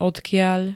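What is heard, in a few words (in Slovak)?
"odkiaľ", Slovak; odkiaľ zám. opyt. príslov.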